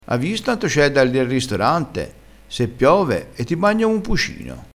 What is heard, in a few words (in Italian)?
"bagnassi om un pucino", vocabolario dialettale crespinese